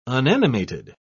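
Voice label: "unanimated", British English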